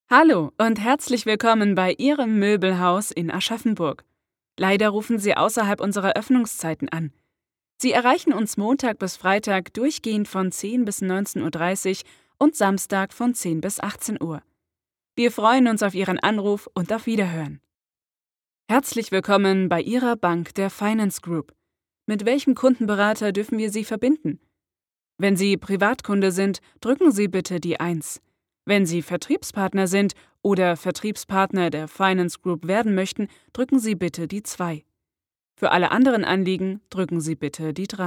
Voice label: german ivr